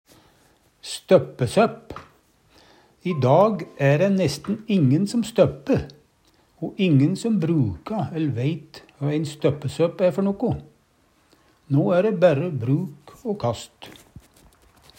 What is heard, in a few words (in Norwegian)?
stoppesopp, Numedalsmål